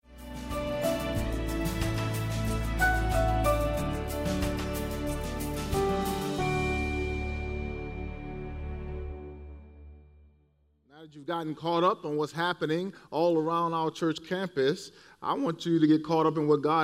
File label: English Sermon